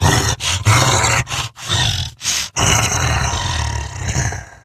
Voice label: Idle Grineer Wolf, en; Battle Barks